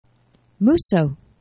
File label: "muso", Esperanto; geneva